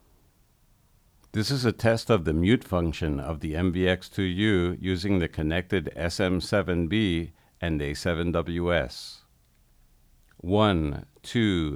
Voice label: MVX2U mute test

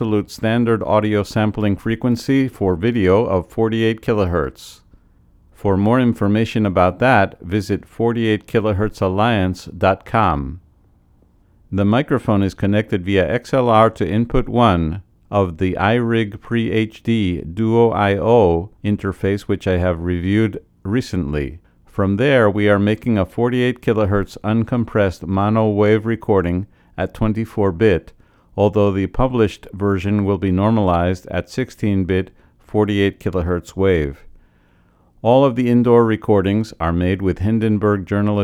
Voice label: SoundBird T3 shotgun flat; Saraomonic

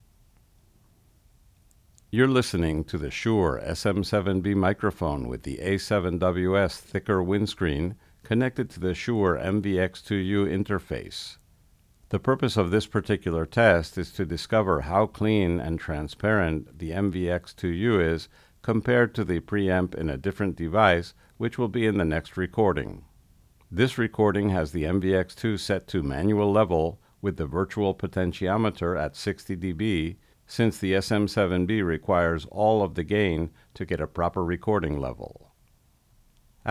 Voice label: MVX2U SM7B all flat

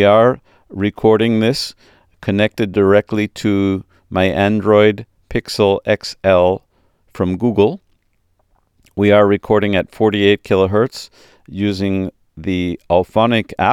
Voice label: iRig Mic HD-2 test

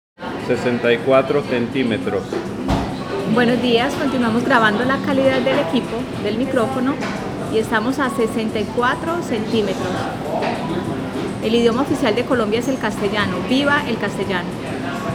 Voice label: cafe, Video Mic Go II

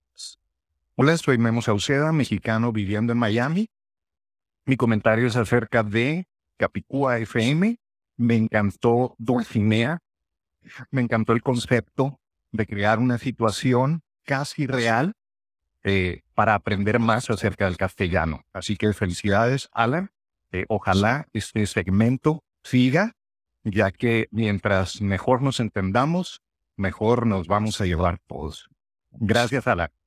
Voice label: Poor POTS Studio Sound

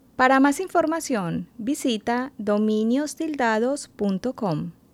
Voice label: Mouth click example before

